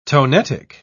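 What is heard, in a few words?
"tonetic", English word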